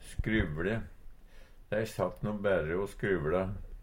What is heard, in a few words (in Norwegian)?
"skryvle", Numedalsmål; Verb